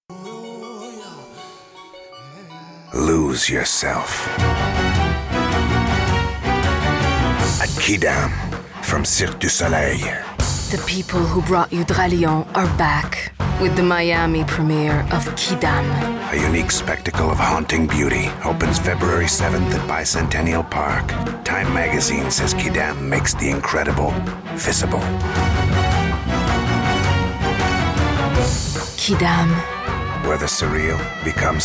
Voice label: announcer; quidam; cirque du soleil